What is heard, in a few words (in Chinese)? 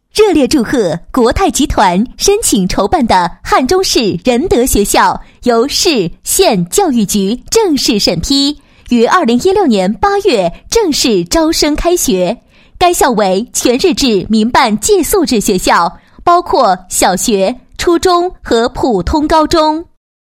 女 祝贺词 开学宣传; 汉中市仁德学校开学宣传